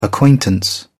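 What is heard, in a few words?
"acquaintance", British English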